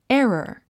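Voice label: "error", English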